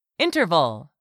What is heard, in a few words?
"INTERVAL", English; 3000 words